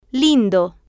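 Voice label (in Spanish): "lindo", Spanish, female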